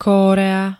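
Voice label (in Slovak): "Kórea", Slovak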